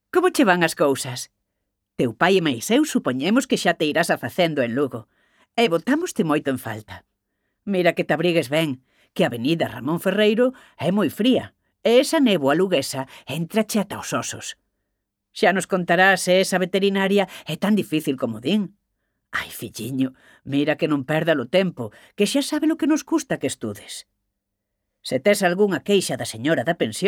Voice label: Galician; VOICES